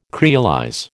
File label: "creolize", American